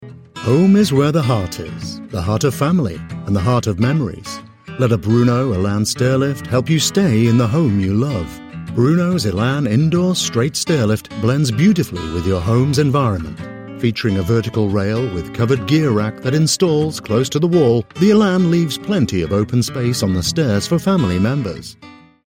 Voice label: voiceover stairlift company promo